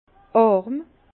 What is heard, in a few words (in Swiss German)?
"arm", Bas Rhin; vin; pauvre